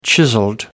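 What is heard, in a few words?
"chiseled", English, British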